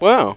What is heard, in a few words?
hype wow, breathy